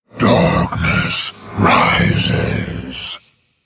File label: Male Demon Play